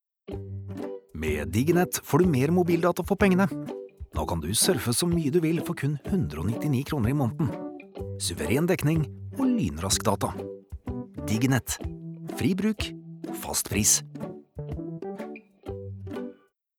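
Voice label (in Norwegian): no reklame, Norwegian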